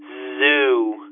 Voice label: "zoo" phone, phonemes